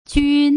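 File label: "jun", Putonghua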